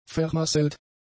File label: "vermasselt", German; adjectives